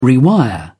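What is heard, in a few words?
"rewire", British English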